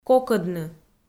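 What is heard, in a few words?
"кокӧдны", Komi, female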